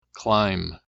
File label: "climb", English